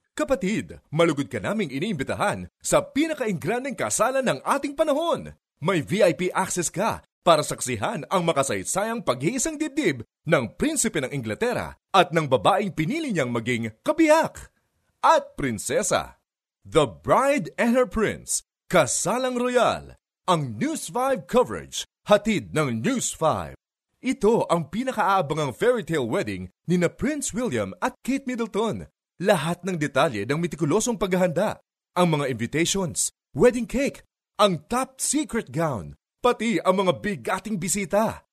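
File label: Tagalog, male; filipino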